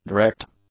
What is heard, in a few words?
"direct", english; adjectives